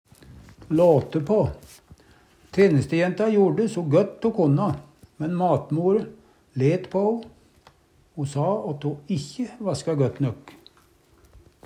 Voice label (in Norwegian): late pa, Numedalsmål